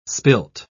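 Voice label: "spilt", British English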